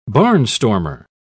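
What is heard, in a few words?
"barnstormer", British English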